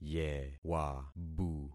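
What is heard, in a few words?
"yewabu", concatenated syllables; Affixing experiment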